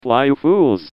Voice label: taunt flip exert; pyro

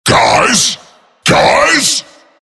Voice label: jeers; tf; skeleton king

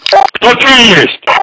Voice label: hurt; tf; polkovnik

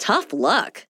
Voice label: sniper jeers; Kinessa

